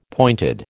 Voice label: "pointed", American